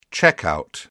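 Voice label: "checkout", British English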